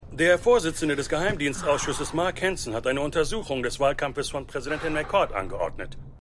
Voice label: Nachrichtensprecher; Madam Secretary

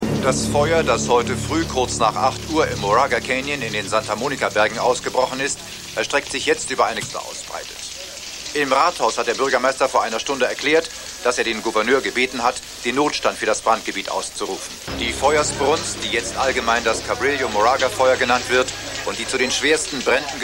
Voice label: Nachrichtensprecher

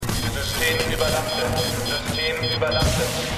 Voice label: Computerstimme; Arrow

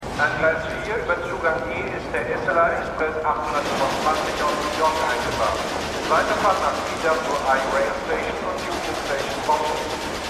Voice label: Bahnhofsdurchsage; The Wire

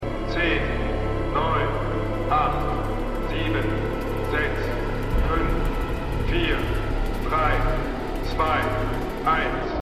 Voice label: Countdownsprecher, Stimmproben; X-Men Dark Phoenix

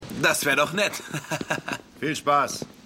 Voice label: Typ, Stimmproben

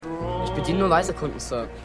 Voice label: Schuhputzjunge; The Banker

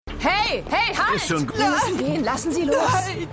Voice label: Polizistin; Black Lightning

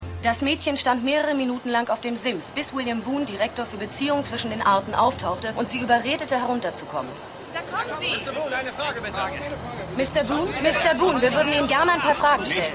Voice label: Nachrichtenreporterin; Mission Erde

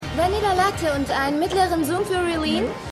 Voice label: Barista, Stimmproben; The Flash

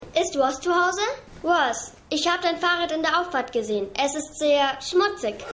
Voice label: Maedchen; Stimmproben

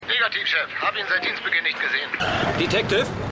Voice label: Funkstimme Polizist Durchsuchungsbefehl

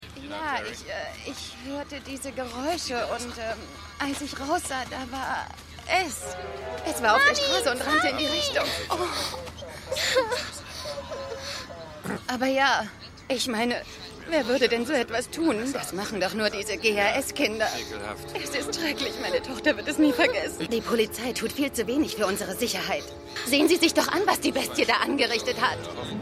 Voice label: Mutter Maedchen; Outer Limits

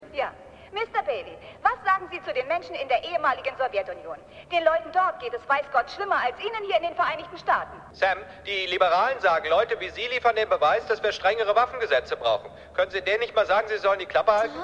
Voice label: Anruferin Anrufer; Mad City

